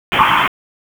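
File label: Fire Department HQ Dispatch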